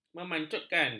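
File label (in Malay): "memancutkan", Malay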